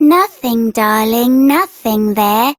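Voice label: Child Rhymes Fear Child Female; Duviri; Childrens Rhymes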